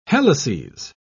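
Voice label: "helices", British English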